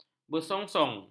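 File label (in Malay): "bersongsong", Malay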